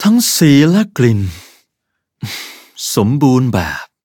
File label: level up, th; cookierun